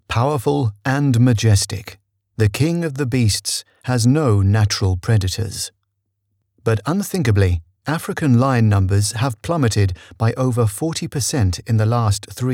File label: Authoritative Narrator